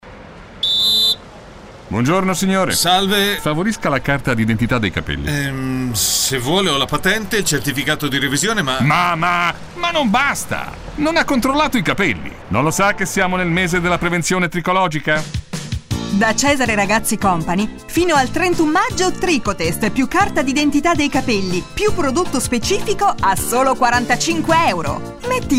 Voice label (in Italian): Spot radio; Cesare Ragazzi prevenzione caduta